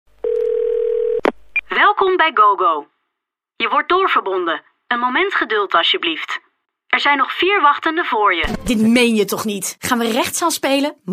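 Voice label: Dutch female commercial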